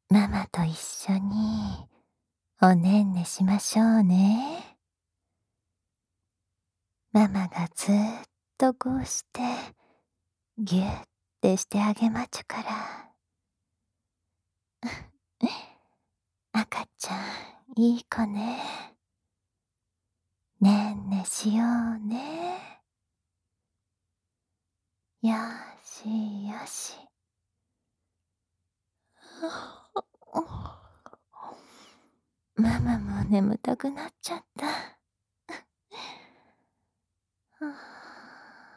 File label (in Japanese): 添い寝・寝かしつけボイス, ASMR; 一緒に添い寝・寝かしつけボイス; SE無し